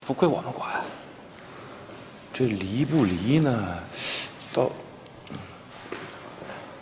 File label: drama